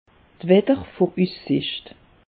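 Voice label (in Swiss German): "wetter", Alsatian; meteo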